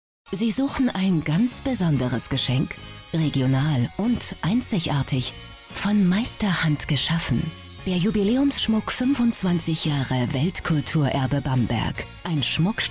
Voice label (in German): Radio Werbung; Donut